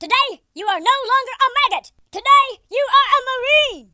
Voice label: victory, Drill Sergeant; speech